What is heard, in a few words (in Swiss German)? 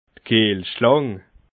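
"kiehlschlang", Alsatian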